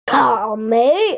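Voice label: "cao mei" (strawberry), Chinese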